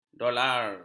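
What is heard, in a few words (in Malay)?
"dolar", Malay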